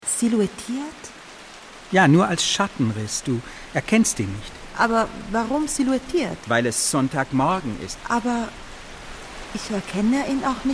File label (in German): hoerspiel deutsch